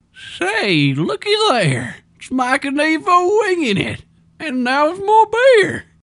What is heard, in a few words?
Old timer Style